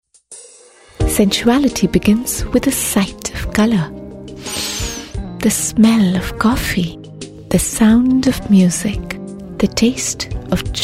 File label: female voice english vo; Boroplus